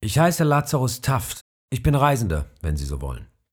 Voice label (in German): Deutsch; Lazarus Taft